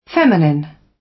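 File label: "feminine", British English; Design and innovation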